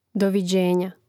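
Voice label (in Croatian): "doviđenja", Hrvatski; mreznik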